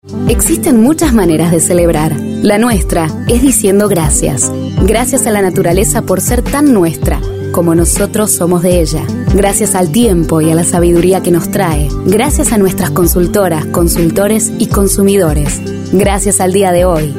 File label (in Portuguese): Institucional